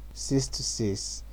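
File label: "six to six", Nigerian Pidgin, male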